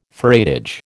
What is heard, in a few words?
"freightage" American